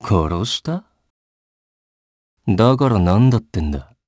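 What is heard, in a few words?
voice, Japanese; Ezekiel; kingsraid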